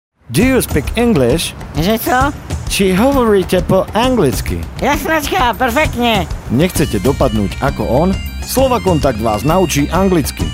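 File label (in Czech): reklami spot; anglictina; slovakontakt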